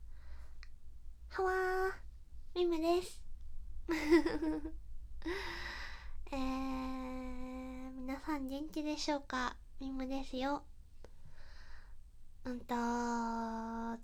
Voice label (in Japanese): あいさつ バイノーラル